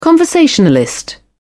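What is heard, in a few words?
"conversationalist", British English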